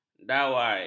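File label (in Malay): "dawai", Malay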